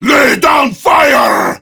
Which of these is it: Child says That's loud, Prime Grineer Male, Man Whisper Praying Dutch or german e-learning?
Prime Grineer Male